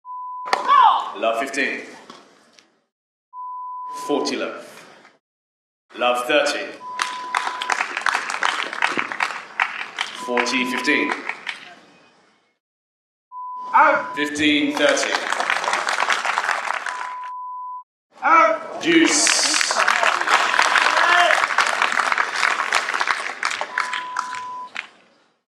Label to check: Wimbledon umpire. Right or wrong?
Right